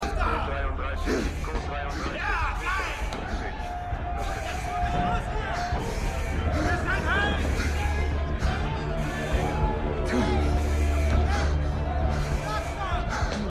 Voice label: Durchsage

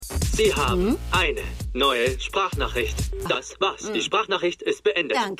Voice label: Handy Sprachnachricht; Und Jetzt Die Muppets

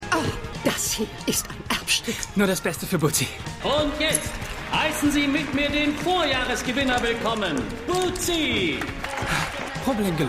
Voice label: Hundehalterin PA Ansager; Lucifer

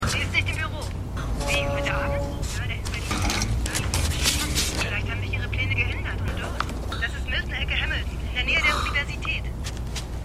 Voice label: Telefonstimme; The Wire

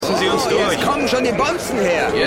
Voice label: Schaulustiger, Stimmproben

